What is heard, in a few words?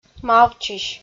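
"мавтчысь", Komi, female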